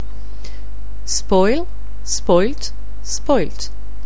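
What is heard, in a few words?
"spoilt", English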